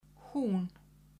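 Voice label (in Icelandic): "hún", Icelandic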